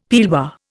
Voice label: "pearlware", Indian; Dictionary